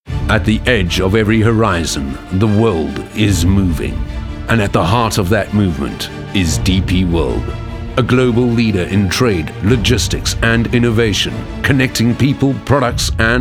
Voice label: Corporate Ad